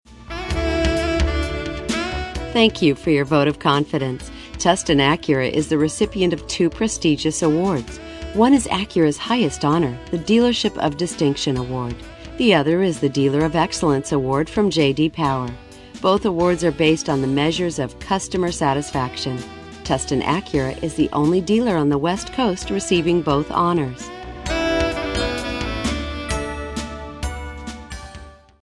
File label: car dealer